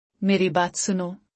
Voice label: Listen to me. Urdu; Simple Commands

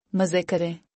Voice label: "Have fun!", Urdu; Basic Greetings and Courtesy

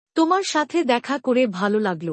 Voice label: It’s nice to meet you. Bangla; Basic Greetings and Courtesy

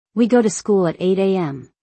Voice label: We go to school at 8 AM., English; Time and Routine

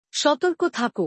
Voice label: "be careful", bangla; Basic Greetings and Courtesy

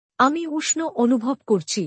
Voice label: I am feeling warm Bangla; Expressing Feelings

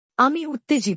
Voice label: I am excited bangla; Expressing Feelings

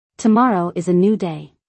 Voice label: Tomorrow is a new day.-English; Time and Routine

